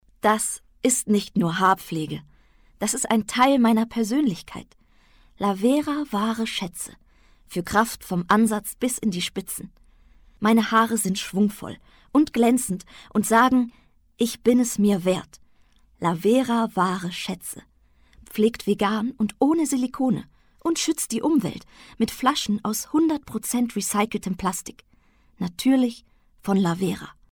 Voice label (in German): Werbung Shampoo